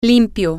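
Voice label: "clean", Spanish, female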